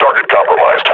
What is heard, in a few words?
player is hurt, grunt; Half Life Model Pack